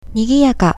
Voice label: "nigiyaka", Japanese